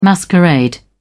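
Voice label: "masquerade", British English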